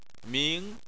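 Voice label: "ming", Chinese, second tone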